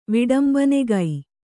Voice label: "ವಿಡಂಬನೆಗೈ", kannada